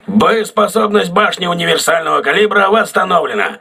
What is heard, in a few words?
voice message auxiliary caliber turret repaired, crew ships russian